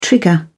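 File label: "trigger", British English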